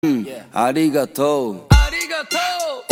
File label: arigatoooouuu